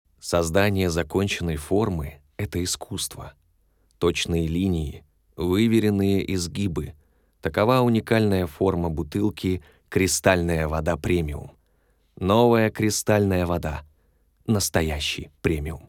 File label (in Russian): Reklama voda